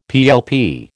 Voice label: "PLP", American

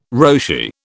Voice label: "Roshi", British

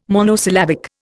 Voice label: "monosyllabic", Indian

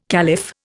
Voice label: "caliph", Indian

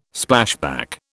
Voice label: "Splashback", British

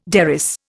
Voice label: "derris", Indian

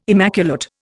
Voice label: "immaculate", Indian

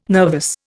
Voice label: "nervous", Indian

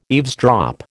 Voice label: "eavesdrop", American

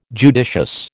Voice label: "judicious", American

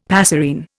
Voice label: "passerine", Indian; Dictionary

